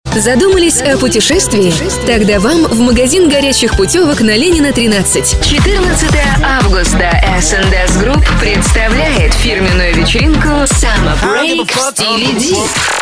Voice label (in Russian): Reklama